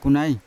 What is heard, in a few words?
"kunai", Yawa; frog; unat